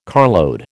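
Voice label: "carload", American English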